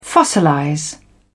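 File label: "fossilize", English, British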